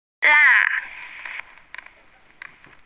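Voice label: "la4", Mandarin Chinese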